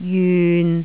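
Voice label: "jyun6", Jyutping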